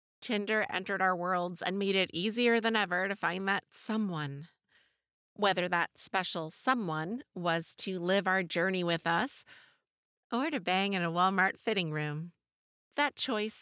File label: volume adj nonfiction, narration; Non-Fiction Irreverent Dating